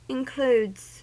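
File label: "includes", US English; pronunciations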